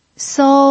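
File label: "sou", Mandarin Chinese pinyin, first tone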